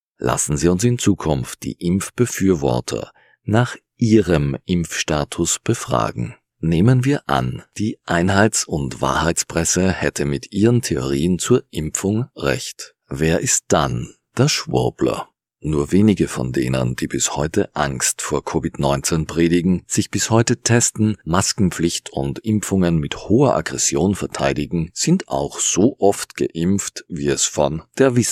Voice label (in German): Kommentar; Kontrafunk aktuell